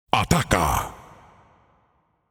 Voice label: Announcer Match Role Attack; Paladins

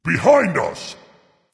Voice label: Dragon; Fafnir

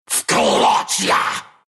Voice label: wolf attack, ru; Lycan

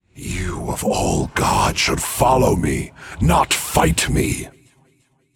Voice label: taunt directed void; Winds of Change Kukulkan; Chernobog; Smite

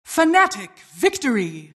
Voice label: announcer team victory

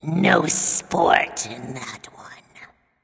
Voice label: Taunt Directed; Smite; Alien Kali; Bacchus

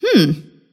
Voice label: happy; Anti-Mage

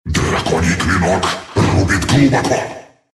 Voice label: dragon attack, ru

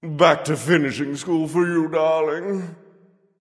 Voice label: taunt directed; Top Hat Anhur; Bastet; Smite

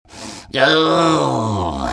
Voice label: anger; rattletrap

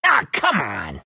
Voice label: anger; meepo

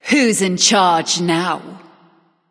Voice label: Taunt Directed; Fiend Hunter Artio; Hera; Smite

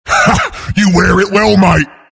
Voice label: nasal goo; Bristleback

